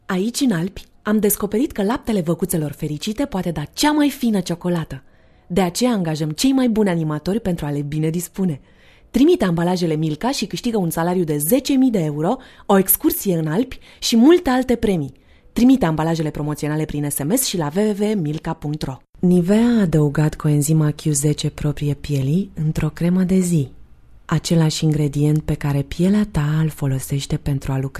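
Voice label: Romanian; Eastern European; Male, Home Studio, 20s-40s. Based in Romania.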